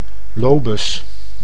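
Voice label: "lobus", Dutch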